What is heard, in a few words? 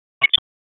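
Police Northwest District Tac, radio; wake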